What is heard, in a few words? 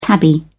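"tabby", British English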